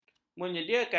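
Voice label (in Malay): "menyediakan", Malay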